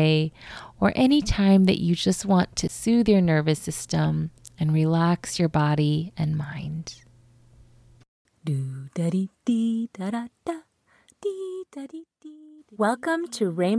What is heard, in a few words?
A Guided Relaxation